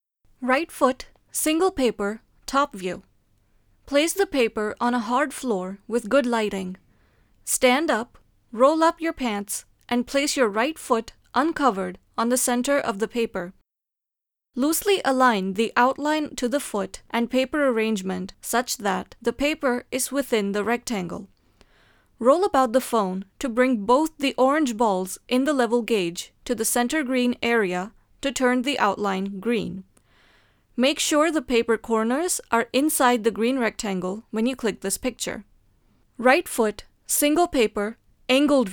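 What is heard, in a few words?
instructional english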